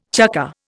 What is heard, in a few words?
"chukker", Indian